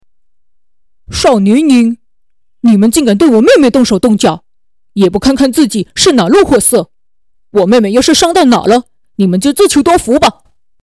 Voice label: 少年音

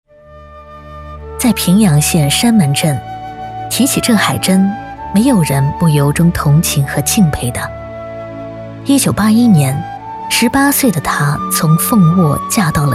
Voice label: 人物解说

